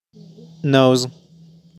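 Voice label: "Nose", pronunciation; body parts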